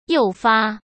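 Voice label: "诱发", Mandarin Chinese; chinese-flashcards; hsk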